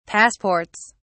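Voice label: "passports", British English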